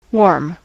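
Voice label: "warm", US English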